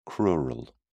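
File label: "crural", British English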